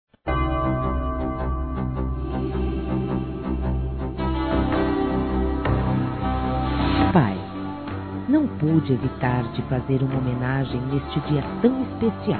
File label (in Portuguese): NATAL E ANO NOVO PAI; Telemensagens; fem; Misto